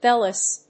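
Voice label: "bell is", English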